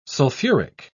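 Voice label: "sulfuric", British English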